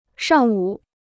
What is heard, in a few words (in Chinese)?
"上午", Chinese